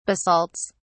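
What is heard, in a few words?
"basalts", British English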